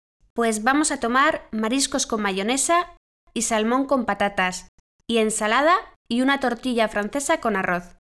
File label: conversation